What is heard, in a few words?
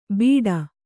"ಬೀಡಾ", kannada